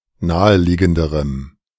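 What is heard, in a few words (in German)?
"naheliegenderem", German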